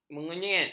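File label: "menyenget", Malay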